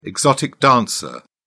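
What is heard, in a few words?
"exotic dancer", British English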